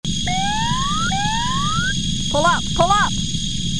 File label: fa 18c pull up